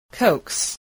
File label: "coax", voice